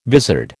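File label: "vizard", American English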